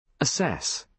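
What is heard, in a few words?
"assess", British English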